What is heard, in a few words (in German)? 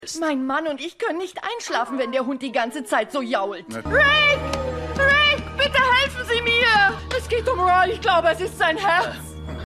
Frau, Stimmproben; Roys Frau; X Factor